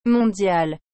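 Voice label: "mondial", French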